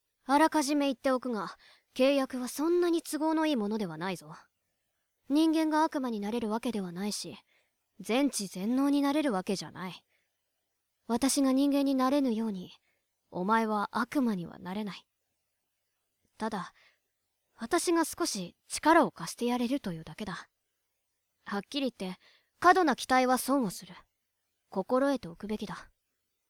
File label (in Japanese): 女性 悪魔